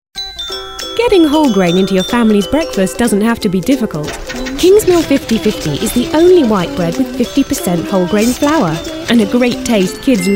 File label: COMMERCIAL